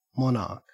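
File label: "monarch", Australian English; pronunciations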